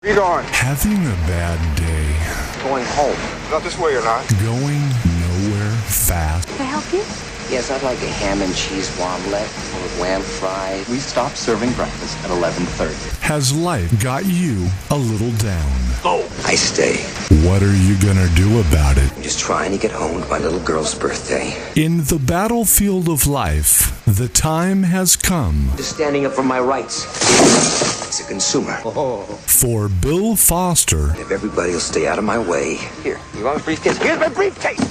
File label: film trailer audio